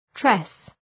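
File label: "tress", English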